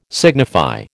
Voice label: "signify", American